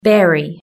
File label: "berry", british english